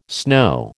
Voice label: "snow", American